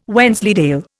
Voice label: "Wensleydale", Indian